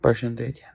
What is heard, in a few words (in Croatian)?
albanski; POP UP Zagreb